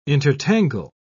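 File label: "intertangle", British English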